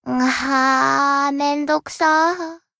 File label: yawn what a pain; hoshino